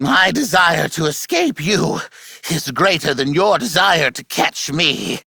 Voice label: Treasure Taunt, Corpus Aristocrat Manager, Battle, Barks, Dialog; Warframe; Treasurer